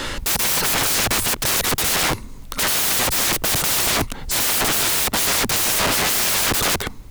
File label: speech 6 bit error